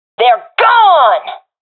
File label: i killed enemy, professional fem; vo; agents; Source Model Packs; Counter Strike Model Pack; CS2